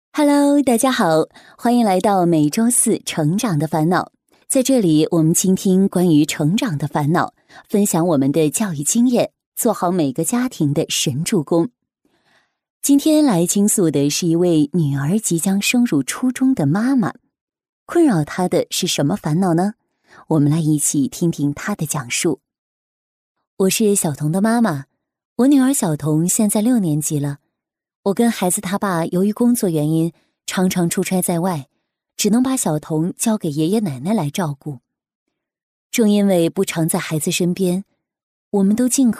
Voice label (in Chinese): 女 情感电台 成长的烦恼